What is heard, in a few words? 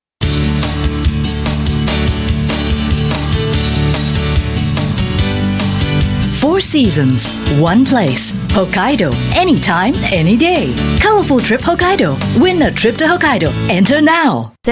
Asian English Hokkaido Tourism